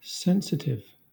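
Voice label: "sensitive" English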